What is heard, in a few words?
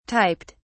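"typed", English, British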